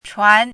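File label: "chuan" second tone, pinyin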